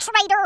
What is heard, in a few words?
"traitor", Brooklyn; Worms Armageddon